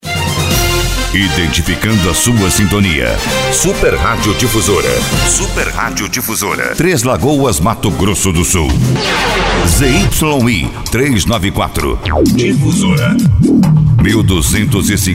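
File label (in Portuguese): vinhetão; difusora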